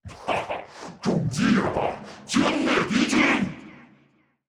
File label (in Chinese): 男